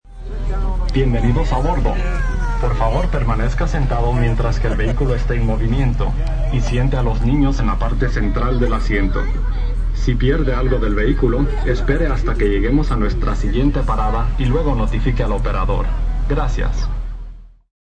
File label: Shtl Elc Annc Span, Safety instructions aboard tram in Spanish.; Tram Safety Announcement Spanish; Recorded Voice